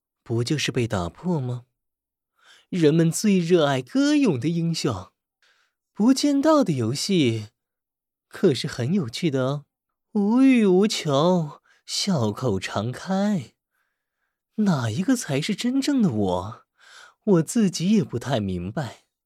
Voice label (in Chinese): 角色男; 元歌